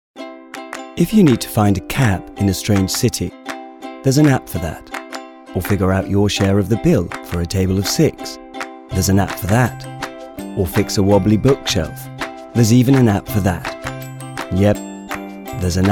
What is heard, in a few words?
Commercials (English RP); reels